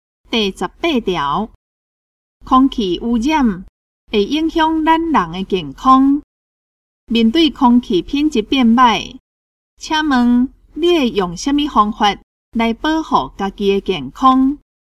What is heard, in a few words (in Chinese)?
口語表達, 閩南語; 閩南語語言能力認證; 金安咱來考C